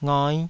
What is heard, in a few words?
"ngai5", Taiwanese